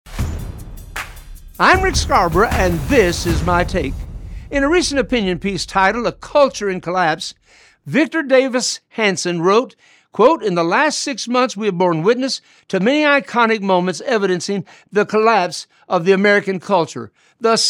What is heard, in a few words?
Radio Spot; America must have revival